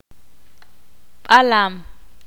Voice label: ixil; tigre